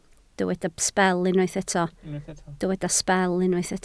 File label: "sbel", Welsh